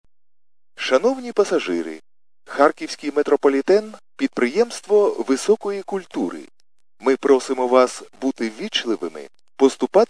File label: khs st male, subway station